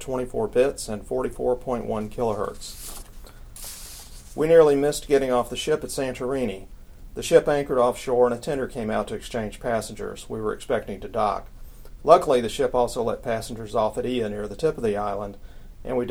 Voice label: Crown Sound Grabber 2 male, microphones